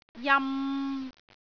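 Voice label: "jam3", Cantonese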